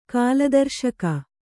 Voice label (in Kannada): "ಕಾಲದರ್ಶಕ", Kannada